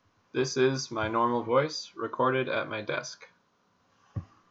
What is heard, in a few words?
Normal voice